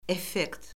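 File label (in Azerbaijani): "effekt", Azerbaijani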